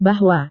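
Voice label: "bahwa", Indonesian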